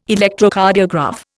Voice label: "Electrocardiograph", Indian